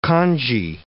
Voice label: "congee", English

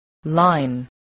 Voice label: "line", spelling

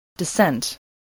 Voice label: "dissent", spelling

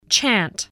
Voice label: "chant", English

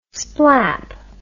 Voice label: "splap", English

